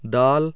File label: voiced dental, hindi